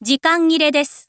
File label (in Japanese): info lady "zikangire"